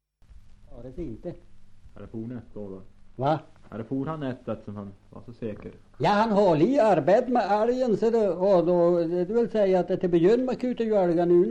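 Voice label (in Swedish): Hotagen Jämtland, svenska sagor dialekter; Folkminnen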